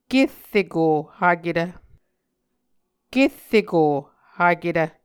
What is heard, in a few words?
"kerreko haketv", Muscogee; Mvskoke Words with Voicing Consonants; A Dictionary of Creek/Muskogee; SIL International